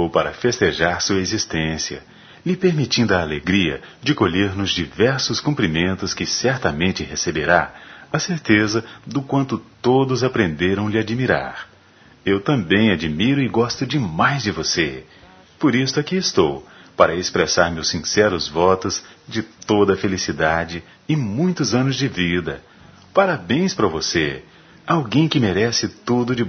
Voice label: Aniversário Neutro M; Telemensagens 2017; Aniversários; Aniversários diversos

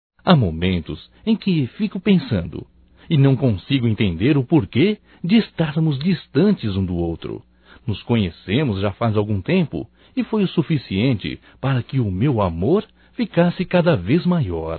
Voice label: SAUDADES RECONCILIACAO (M), Só Voz; Telemensagens; Telemensagens 2017

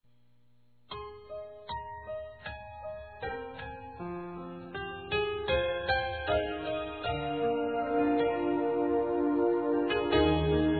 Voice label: Aniversário Esposa Masculino; Aniversários; Aniv Familiar

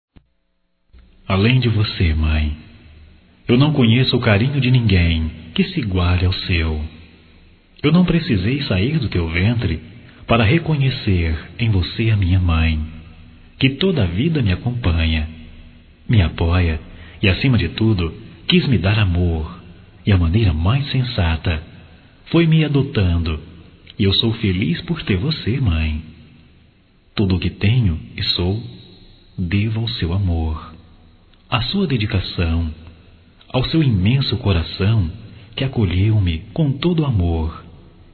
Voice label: Homenagem a Mãe Adotiva Masculino

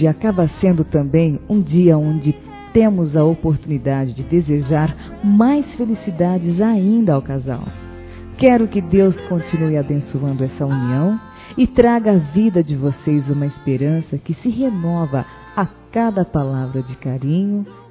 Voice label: Aniversário Casamento Geral Masculino, Telemensagens; Telemensagens 2017; Aniversários

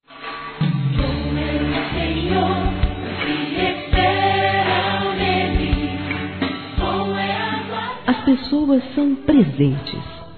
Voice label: Evangélico Aniversário Atrasado Feminino; Aniversários

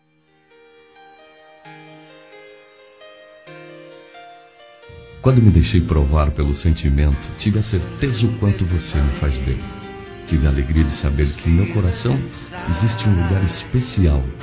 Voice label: Declaração Romântica Simples Masculino; Telemensagens; Declaração de amor; Telemensagens 2017

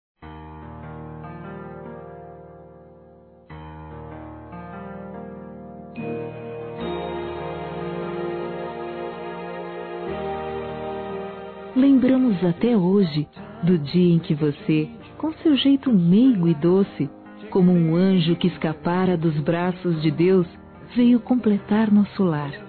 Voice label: ANIV. FILHA PAIS ENVIANDO F; Aniversários; Aniversários diversos; fem

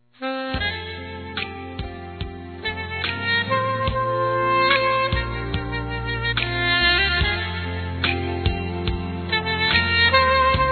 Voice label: para amigo masculino, telemensagens dia dos pais; Telemensagens 2017

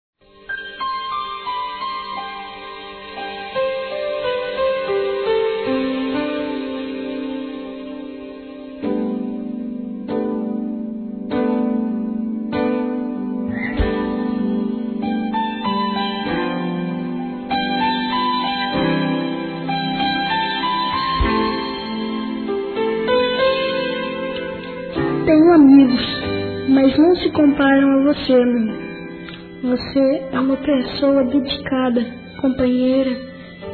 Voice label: Aniversário Mãe Infantil Masculino; Telemensagens 2017; Aniversários

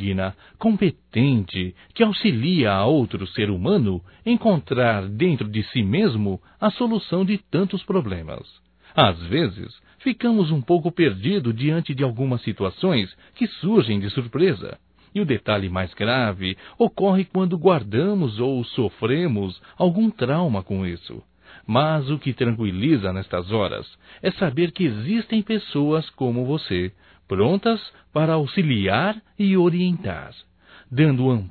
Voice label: HOMENAGEM A PSICóLOGA (M), Telemensagens; Homenagens; Telemensagens 2017

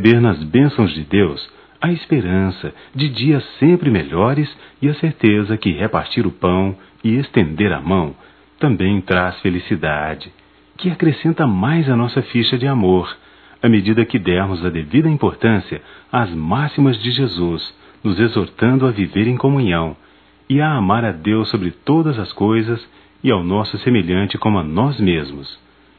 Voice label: Natal evangelico, masc; Natal e ano novo; Telemensagens 2017

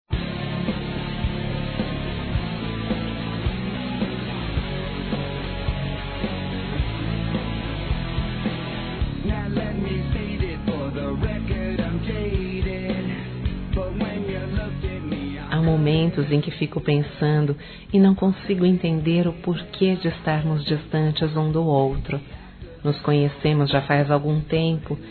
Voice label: Saudades Reconciliação Feminino; Telemensagens

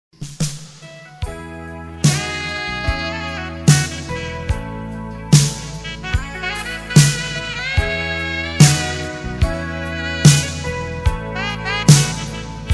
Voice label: ROM SAUDADE FEM; Telemensagens; Saudades

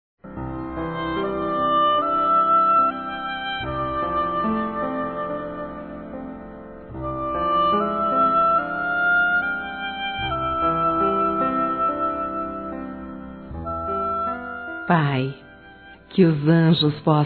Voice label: Neutro, fem; Telemensagens 2017

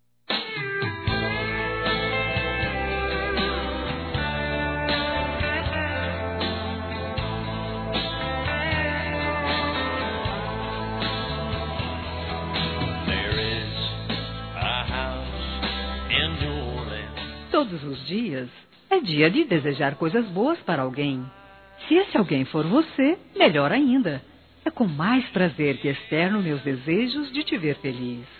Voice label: Aniversário Antecipado Feminino; Telemensagens 2017; Aniversários; Aniversário atrasado e antecipado

